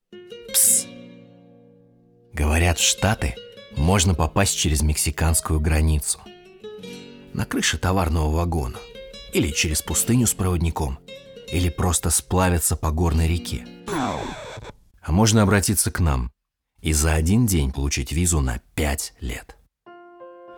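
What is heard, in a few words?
Commercial, Russian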